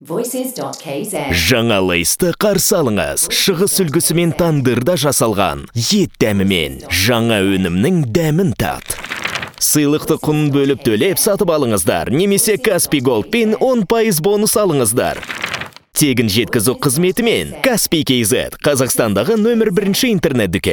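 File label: kazakh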